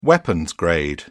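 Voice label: "weapons-grade", British English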